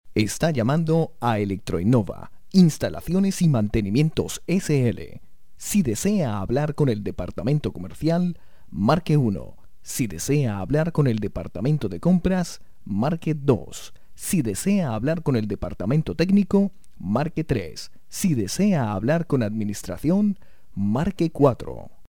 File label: locutor colombiano colombian voiceovers; locutor de Colombia